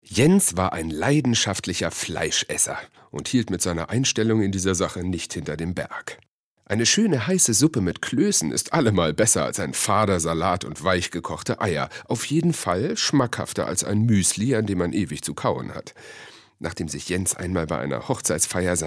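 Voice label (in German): Erzählung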